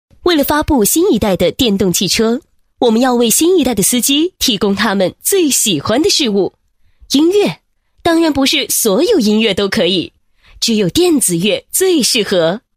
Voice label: 女 解说; 电动汽车宣传片解说

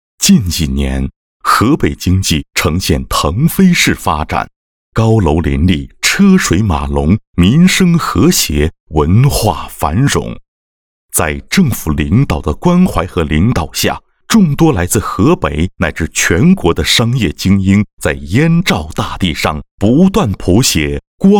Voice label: 男 广告 杂志; 冀商财富

